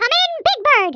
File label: "orders", American; Speech; International